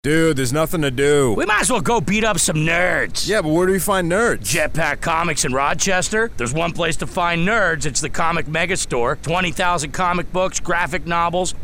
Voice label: commercial; Beat Up Nerds